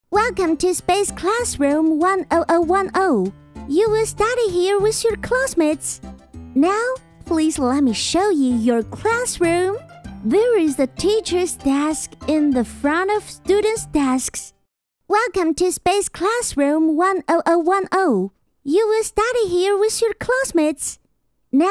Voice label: 英文女

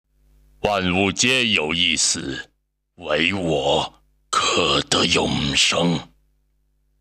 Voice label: 配音; 英雄联盟配音铁男

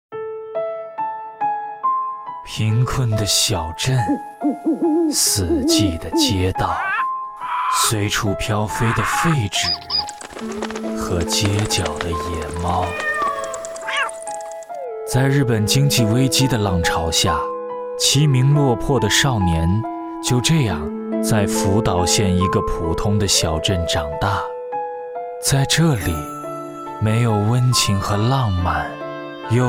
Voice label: 宣传; 花与爱莉丝

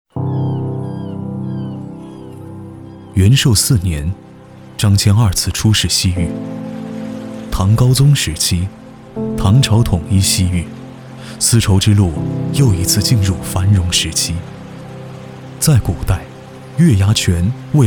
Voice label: 旁白年轻娓娓道来

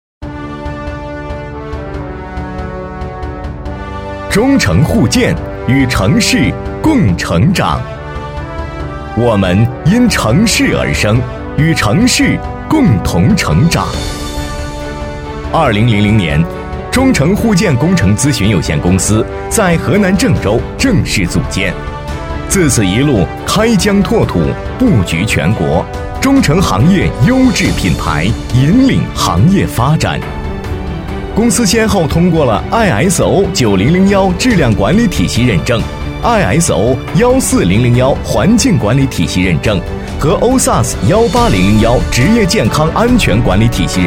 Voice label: 城建宣传

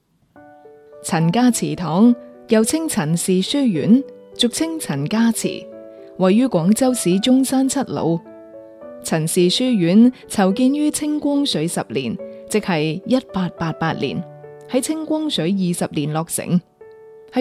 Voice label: 粤语讲解

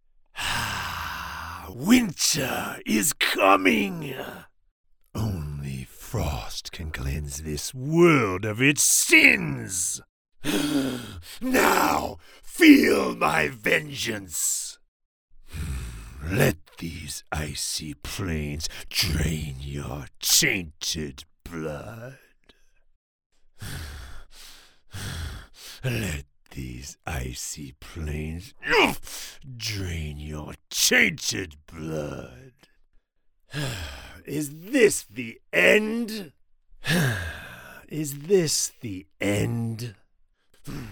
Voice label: 男美式游戏; 极寒之灾

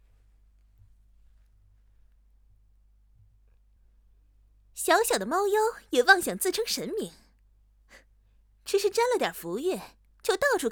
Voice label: 少御爆发

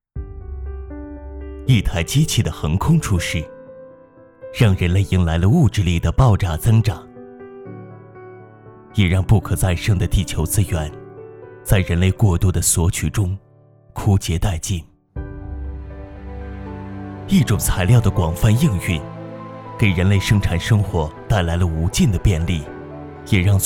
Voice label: 品质宣传; 格林

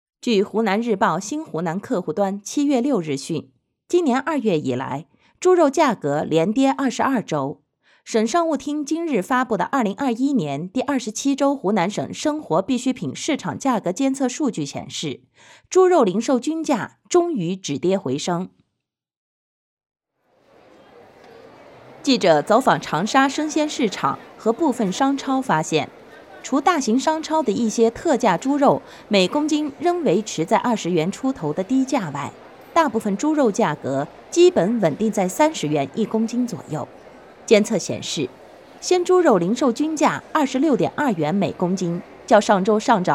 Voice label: 新闻类

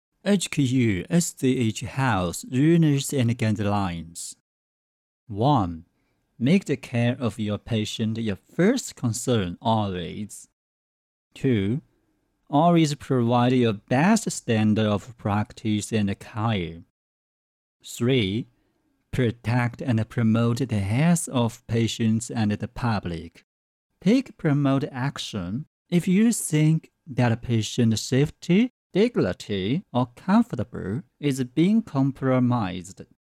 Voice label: 英语; 国籍英语; 鲸读全球; 香港大学深圳医院

